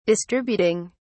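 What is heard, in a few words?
"distributing", British English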